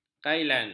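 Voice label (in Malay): "kailan", Malay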